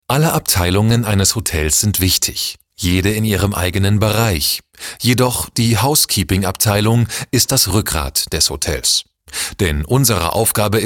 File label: locutor aleman german voiceover; Deutscher Sprecher